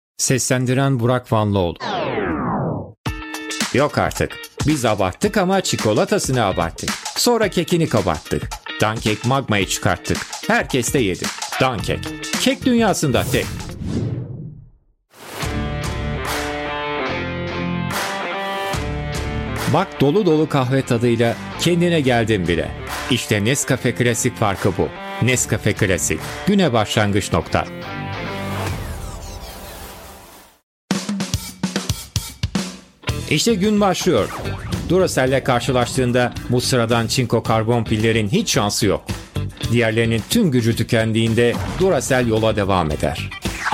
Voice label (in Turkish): kurumsal reklam